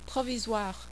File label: "provisoire", French